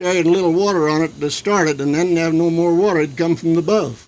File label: Oral history with 85 year old white male Montrose Colorado, american english dialect recordings